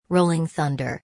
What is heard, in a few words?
"Rolling Thunder", English; thunder-and-lightning-in-english